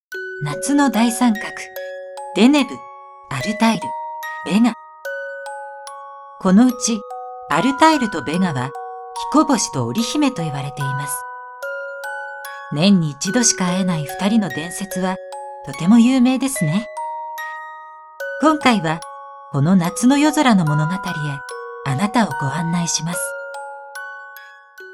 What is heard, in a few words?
narration